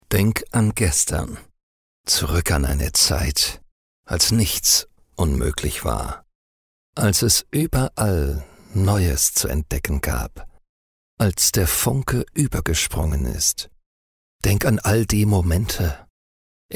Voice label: Corporate Film; Wonderware